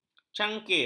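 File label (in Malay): "cangkir", Malay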